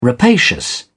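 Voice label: "rapacious", British English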